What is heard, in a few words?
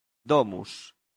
"domus", latin; santillana